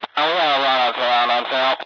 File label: cb radio chatter; Source Engine; cstrike